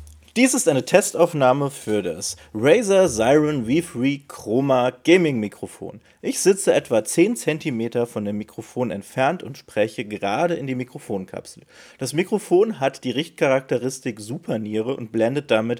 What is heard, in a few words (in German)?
razer seiren v3 chroma mit verstaerkung